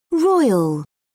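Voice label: "roil", British English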